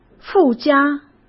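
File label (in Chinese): "附加" Mandarin; hsk; chinese flashcards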